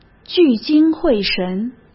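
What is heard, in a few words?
"聚精会神", Mandarin Chinese; hsk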